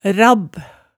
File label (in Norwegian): "rabb", Hemsedal